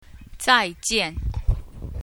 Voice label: goodbye, Chinese; Zai4 jian4

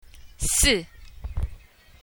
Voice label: 4, si4, Chinese

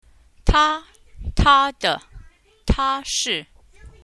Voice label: he she, Chinese; Ta1; He/She/It Ta1

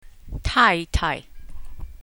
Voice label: Mrs., Chinese; Tai4 tai5

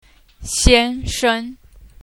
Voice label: Mr. Husband, Chinese; Xian1 shen1

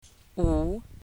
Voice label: 5, wu3, Chinese

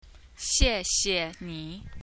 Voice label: thank you, Chinese; Xie4 xie5 ni3

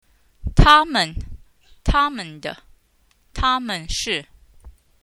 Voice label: they, Chinese; Ta1 men5

